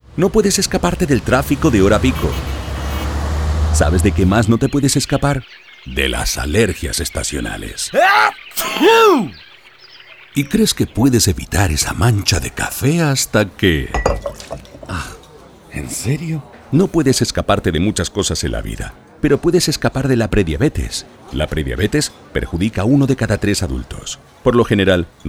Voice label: Spanish; Traffic